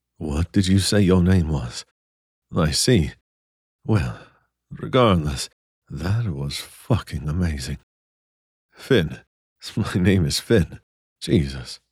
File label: British One Night, british lover